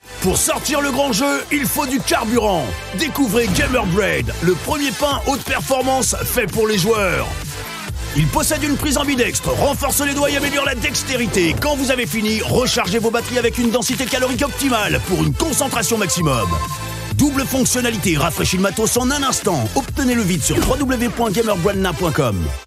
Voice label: 法语男 面包广告

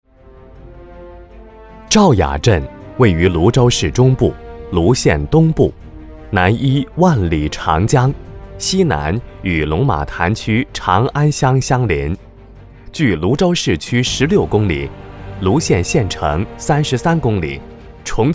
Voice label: 男, 中文, 专题类, 工程建设汇报

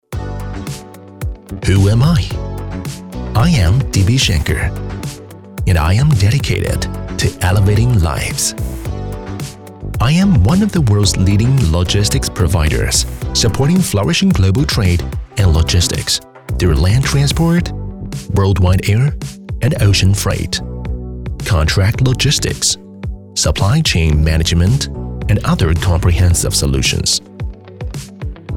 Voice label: 英男 美式 专题 年轻音色 感性

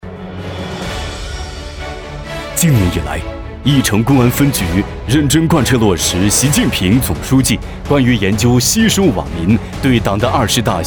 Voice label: 男 党政专题 公安分局

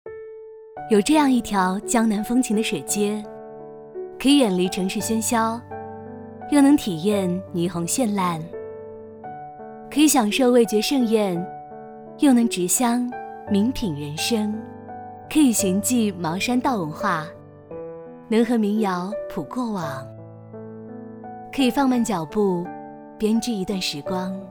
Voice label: 女 旁白 慢生活 自然 舒适

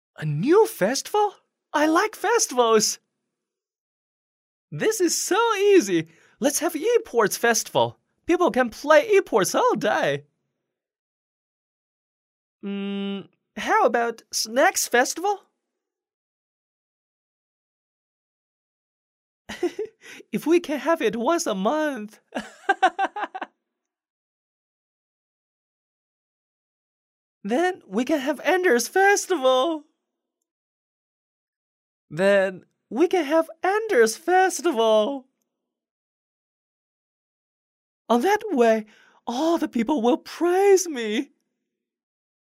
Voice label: 男 英文 有声读物 角色演绎

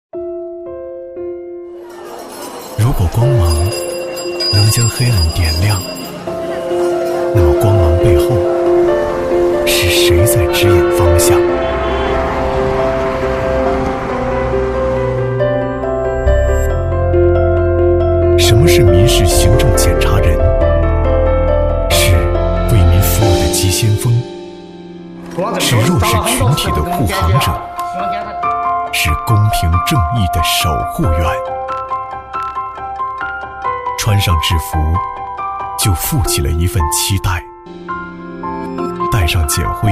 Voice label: 男,温暖感动; 检查官

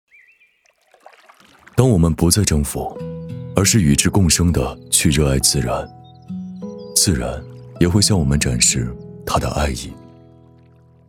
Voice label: 男 旁白 自然的密语; 斯巴鲁岚鲲

